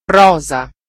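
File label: pink, Serbian; gcompris-data; colors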